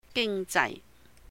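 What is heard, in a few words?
"Ging Jai", Cantonese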